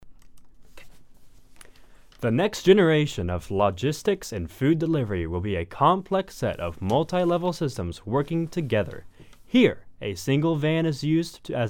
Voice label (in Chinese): 外籍 公司宣传片 科技解说风格